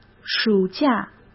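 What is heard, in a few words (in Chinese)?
"暑假", Mandarin Chinese; chinese-flashcards; hsk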